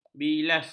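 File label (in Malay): "bilas", Malay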